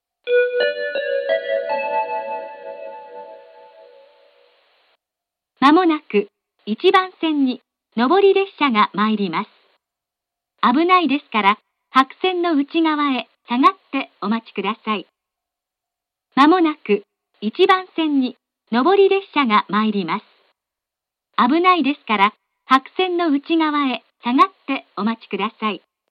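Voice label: platform 3 approaching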